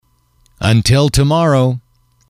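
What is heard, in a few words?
until tomorrow, English, male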